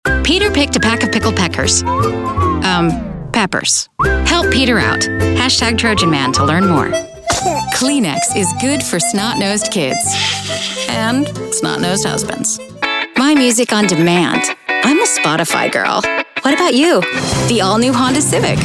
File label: Commercial